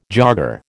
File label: "jogger", American